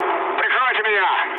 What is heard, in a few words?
aircraft damaged, mood low, speech ru